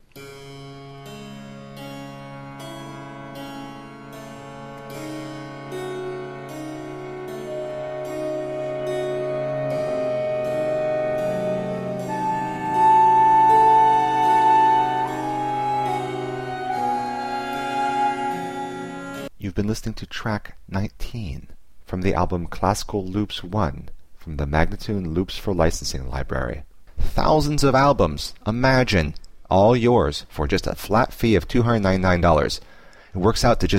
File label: american baroque spoken